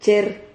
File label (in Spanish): "cher", Silabas